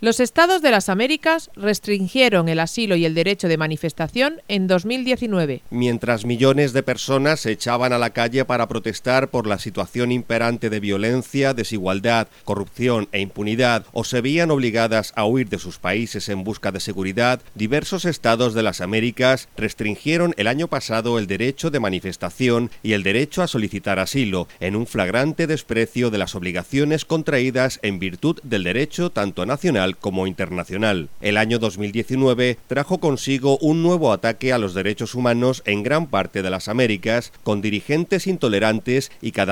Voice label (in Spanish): informativo; americas restringidos derechos asilo y manifestacion